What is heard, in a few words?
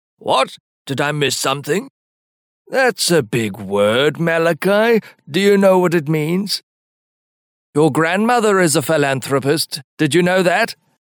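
English South African M Senior Voice; accent of south africa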